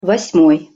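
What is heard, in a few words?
"vos moj", Russian pronunciation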